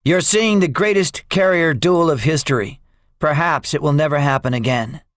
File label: debriefing, english; guadalcanal; War Thunder